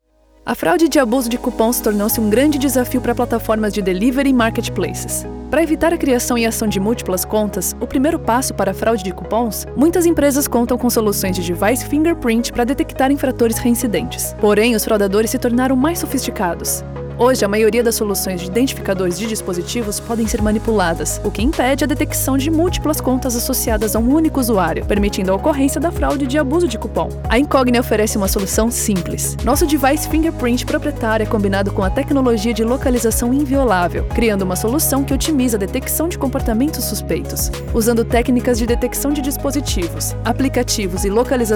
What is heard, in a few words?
voiceover pt br